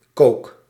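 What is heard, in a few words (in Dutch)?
"coke", Dutch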